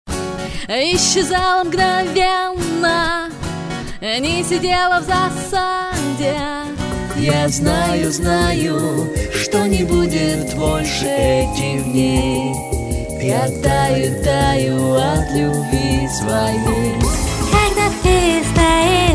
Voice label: rus

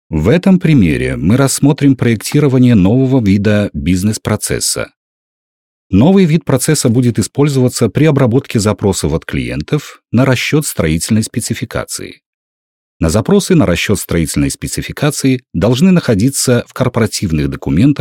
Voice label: russian male

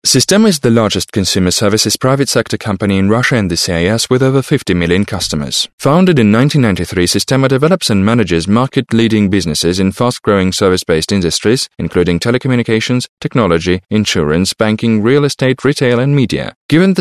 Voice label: british english male

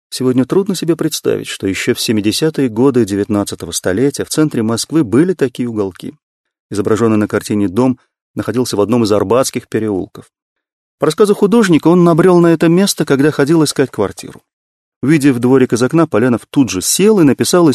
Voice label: russian male

